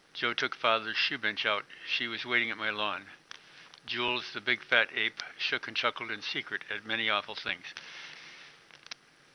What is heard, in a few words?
hikers story no wind; mckaudio